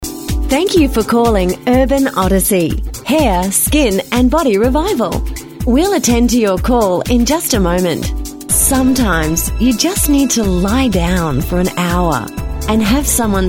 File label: Phone Messages On Hold